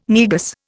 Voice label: "Negus", Indian